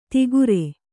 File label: "ತಿಗುರೆ", kannada